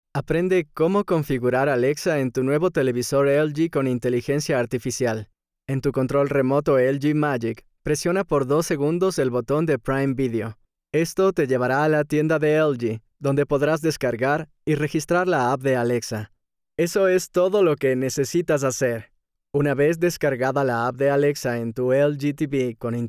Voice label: Friendly Professional